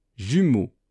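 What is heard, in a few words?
"jumeau", French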